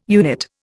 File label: "unit", Indian